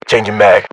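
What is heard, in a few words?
British inform reloading generic; battlechatter; localized english; uk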